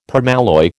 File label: "permalloy", American